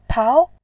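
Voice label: "pao", Mandarin, tone 2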